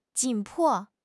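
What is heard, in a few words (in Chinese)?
"紧迫", Chinese; HSK6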